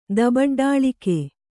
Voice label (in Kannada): "ದಬಡ್ಡಾಳಿಕೆ", Kannada